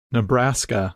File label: "Nebraska", English Pronunciation